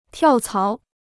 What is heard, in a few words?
"跳槽", Chinese